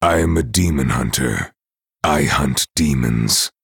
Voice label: Male Night Elf; Kurtrus

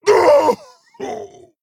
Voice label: Male Tauren Death

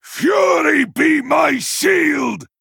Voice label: Male Broken Attack

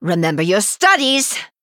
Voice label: Female High Elf Emote

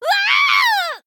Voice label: Female Human Death

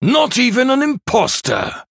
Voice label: Male Human MIRROR START

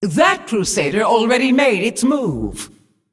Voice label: Female Demon ERROR MINION ATTACKED

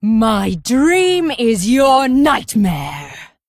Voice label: Female Dragon Play

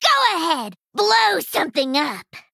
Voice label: Female Goblin Play

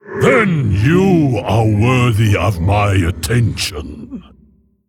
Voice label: Male Mogu MIRROR START

